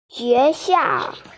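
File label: "xue2xiao4", Mandarin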